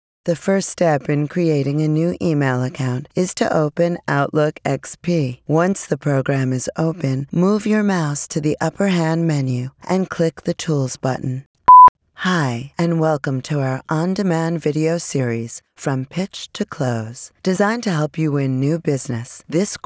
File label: e-Learning